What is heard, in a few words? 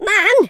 ouch, speech, Madchester